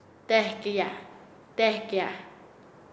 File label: Nasa Yuwe; subir; paez